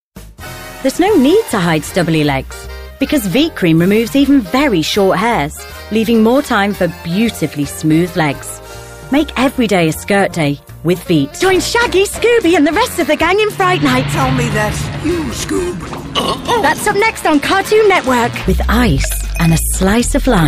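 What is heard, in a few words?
English British, female, commercial; voice demos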